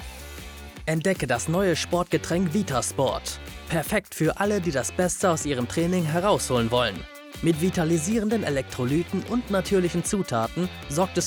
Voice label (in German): Werbung; Vitasport